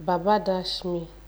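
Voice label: "baba dash me", Nigerian Pidgin, female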